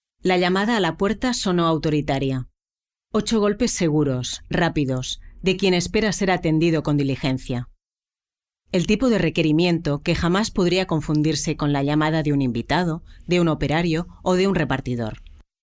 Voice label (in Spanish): audiolibro castellano